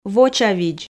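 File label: "вочавидз", Komi, female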